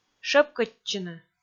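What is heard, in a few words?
"шӧпкӧдчыны", Komi, female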